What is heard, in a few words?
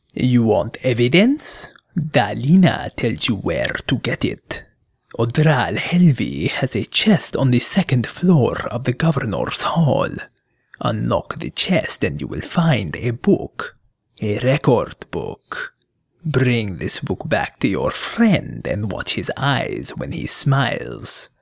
khajiit audition, khajiit voice auditions